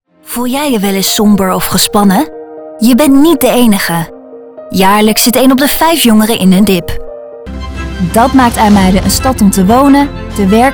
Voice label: Corporate